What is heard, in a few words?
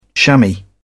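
"shammy", British English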